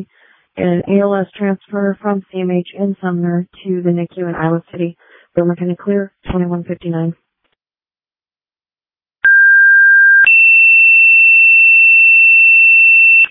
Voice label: Ambulance, dispatch; Sumner

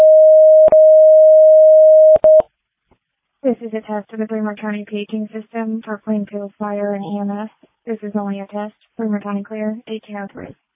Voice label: Fire, dispatch

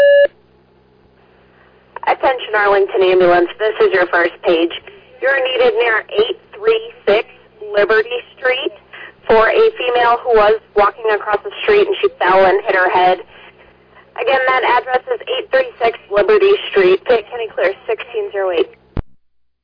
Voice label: Arlington Fire, dispatch

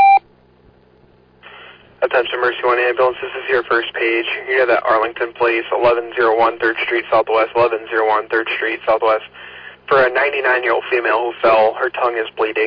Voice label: Oelwein Ambulance, dispatch

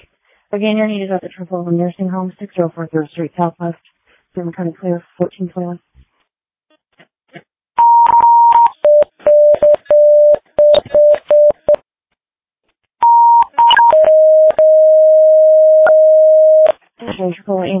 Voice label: Tripoli Fire, dispatch

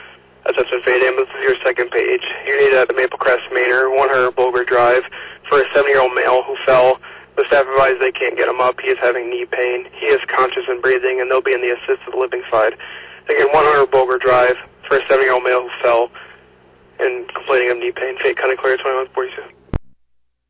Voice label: Ambulance, dispatch

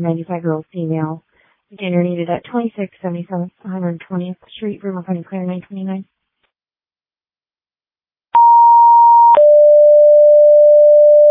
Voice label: Ambulance, dispatch

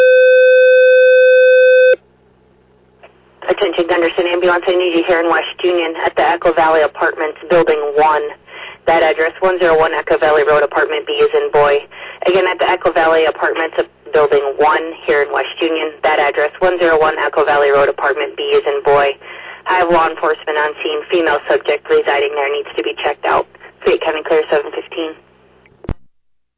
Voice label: Ambulance, dispatch

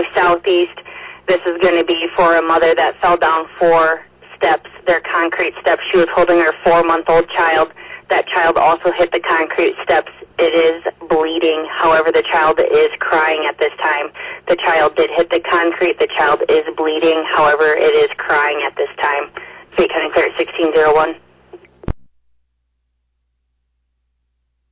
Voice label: Oelwein Ambulance, dispatch

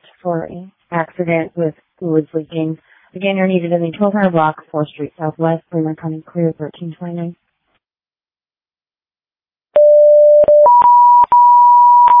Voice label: Waverly Fire, dispatch

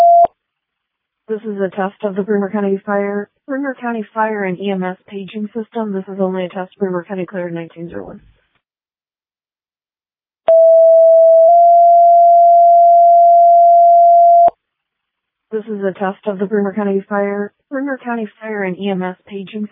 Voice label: All Call, dispatch

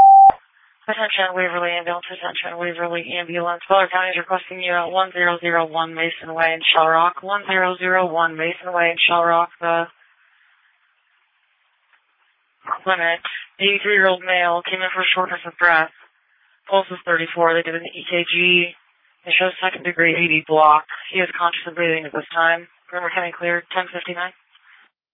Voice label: Waverly Ambulance, dispatch

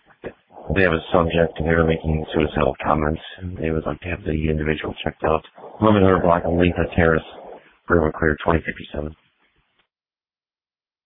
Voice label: Waverly Ambulance, dispatch

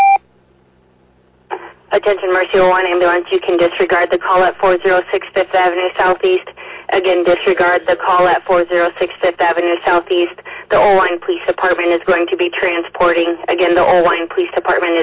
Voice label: Oelwein Ambulance, dispatch

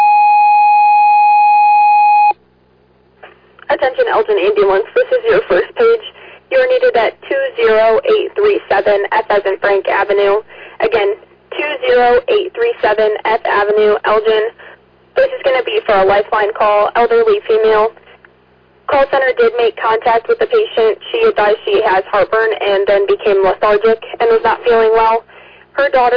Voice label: Fire, dispatch; Elgin

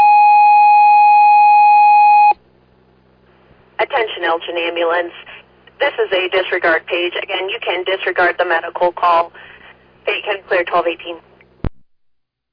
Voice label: Ambulance, dispatch; Elgin

